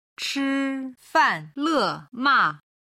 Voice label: "chifanlema", Chinese